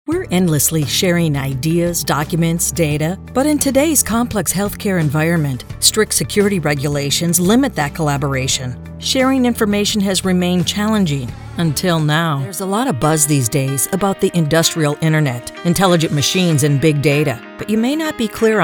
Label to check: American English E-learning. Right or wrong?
Right